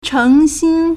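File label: "chéngxīn", Chinese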